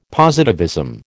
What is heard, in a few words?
"positivism", American